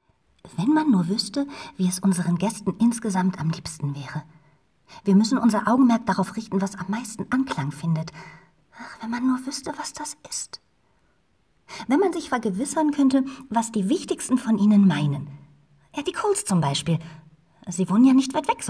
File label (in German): Hoerbuch; Jane Austen